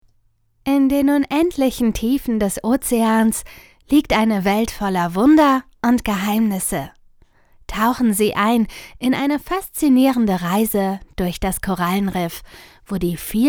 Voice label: Narration DE

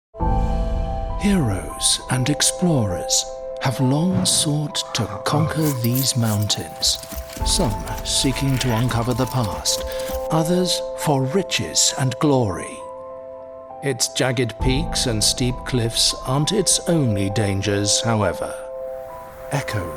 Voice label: Games Trailer

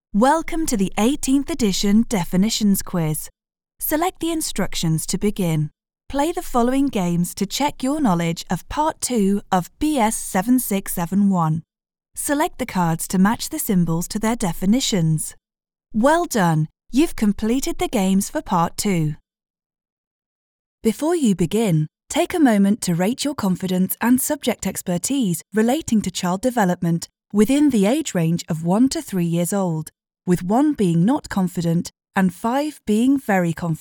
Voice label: eLearning

